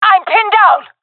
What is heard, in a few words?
pinned, jungle fem epic; vo; agents; Source Model Packs; Counter Strike Model Pack; CS2